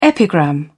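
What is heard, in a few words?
"epigram", British English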